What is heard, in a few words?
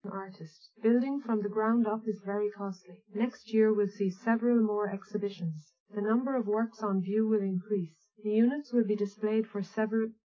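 female speech; SiSEC10; underdetermined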